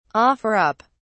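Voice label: "offer up", British English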